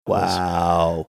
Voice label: drawn out "wow"

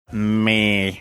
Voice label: saying me funny

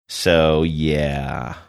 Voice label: drawn out so yeah

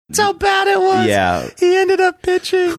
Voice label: saying thats how bad it was high pitched

